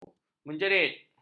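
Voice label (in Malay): "menjerit", Malay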